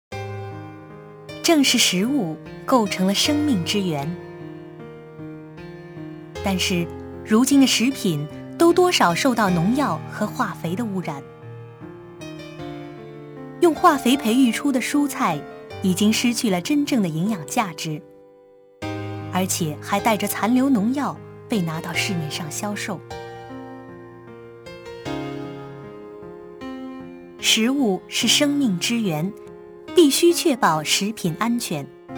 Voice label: chinese